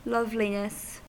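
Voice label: "loveliness", British English; pronunciations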